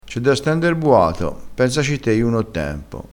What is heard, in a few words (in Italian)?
"buato", crespinese